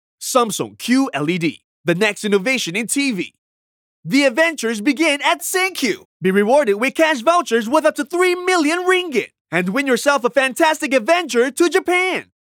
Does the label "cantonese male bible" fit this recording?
No